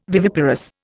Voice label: "viviparous", Indian